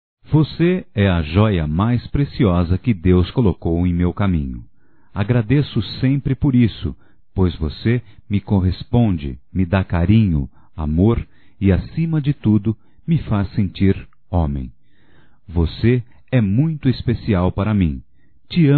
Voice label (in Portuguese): Te Amo Masculino, Telemensagens; Amor; Telemensagens 2017